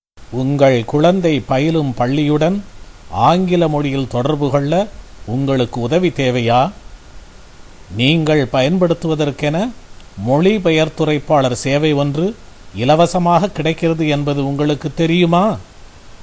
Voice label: tamil; public schools; going to a public school